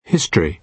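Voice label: "history", British English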